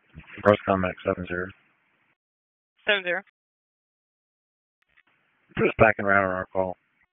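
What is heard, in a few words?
EMS Dispatch, p25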